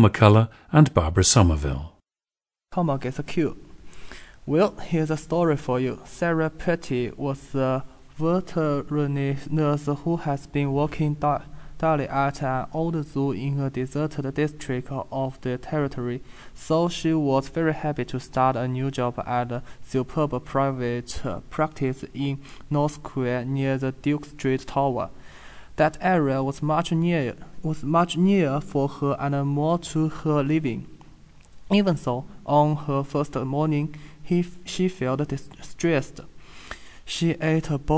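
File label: jiangsu, dialects of English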